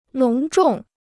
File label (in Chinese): "隆重", Chinese